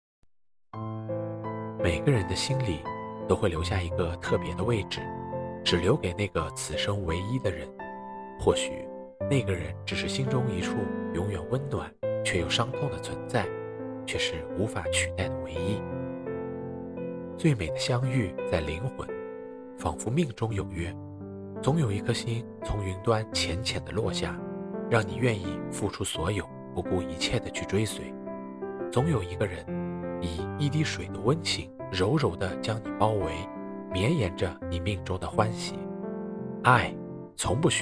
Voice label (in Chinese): 情感美文